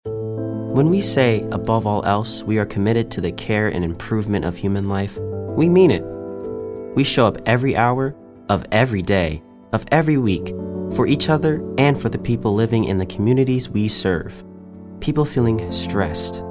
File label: thoughtful